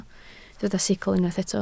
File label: "sicl", Welsh